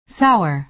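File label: "sour", American English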